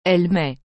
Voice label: "elle met", frans; mettre

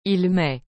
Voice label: "il met", frans; mettre

